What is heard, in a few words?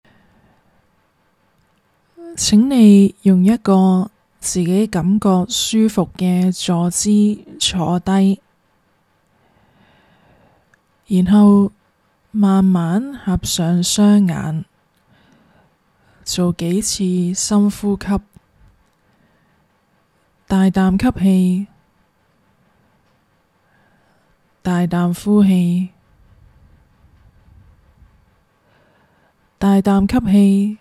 money 5 senses meditation